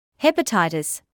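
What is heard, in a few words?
"hepatitis", British English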